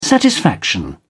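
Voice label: "satisfaction", British English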